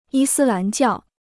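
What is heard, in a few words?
"伊斯兰教", Chinese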